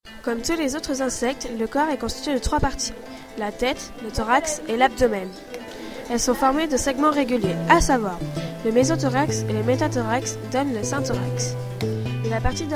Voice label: audio en francais v travail avec musique

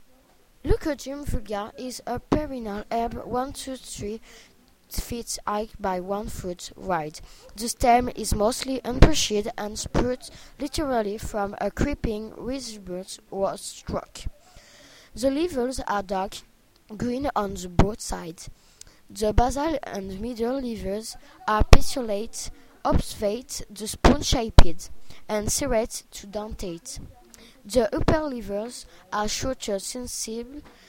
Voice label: anglais audio

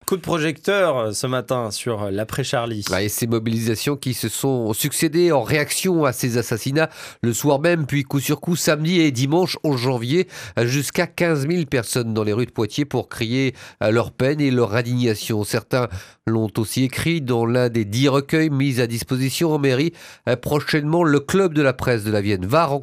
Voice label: journal radio; 6h; radio bleue

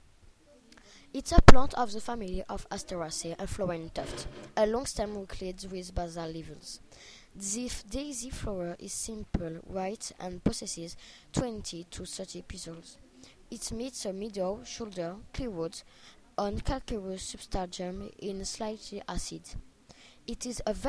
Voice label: audio anglais

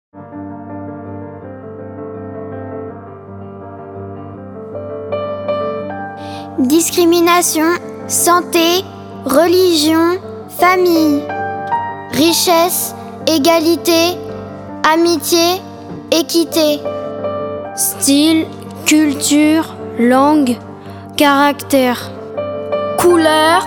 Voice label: slam mots difference

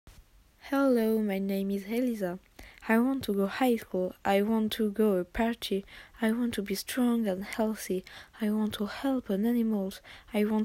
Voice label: slam anglais

